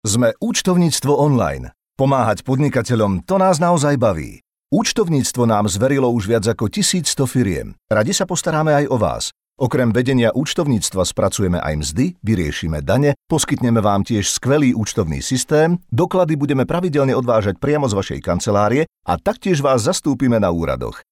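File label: Male Slovak commercial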